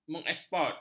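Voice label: "mengeksport", Malay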